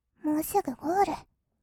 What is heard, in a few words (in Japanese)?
"もうすぐゴール", kawaii voice; 1200 kawaii voice!!; 色々なワンショット